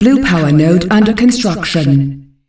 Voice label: "Blue powernode under construction", announcer female; Unreal Tournament; ut2004